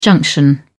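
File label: "junction", British English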